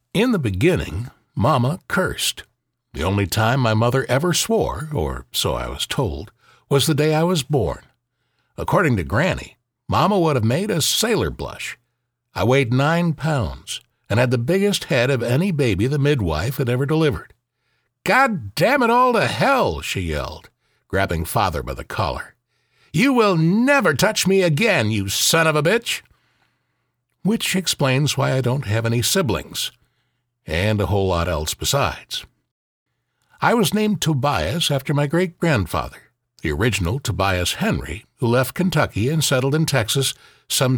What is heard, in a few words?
Male Voice Over Talent Audiobook